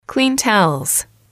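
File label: "clean towels", English, female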